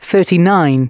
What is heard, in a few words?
announcements male